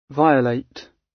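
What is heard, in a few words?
"violate", British English; Crime and punishment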